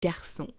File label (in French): "Garcon", French